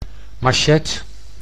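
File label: "machette", Dutch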